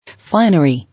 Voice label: "Finery", English

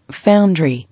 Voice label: "Foundry", English

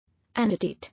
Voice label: "Annotate", English

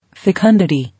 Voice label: "Fecundity", English

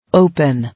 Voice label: "Open", English

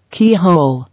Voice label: "Key hole", English

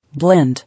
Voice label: "Blend", English

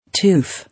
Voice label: "Tooth", English

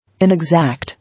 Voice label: "Inexact", English

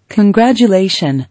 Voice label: "Congratulation", English

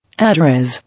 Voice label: "Address", English

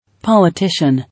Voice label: "Politician", English

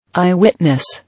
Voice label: "Eye witness", English

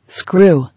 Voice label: "Screw", English

